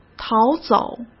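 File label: "逃走", Mandarin Chinese; hsk; chinese flashcards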